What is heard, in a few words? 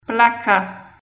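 Haitian Creole; placard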